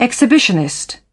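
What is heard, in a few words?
"exhibitionist", British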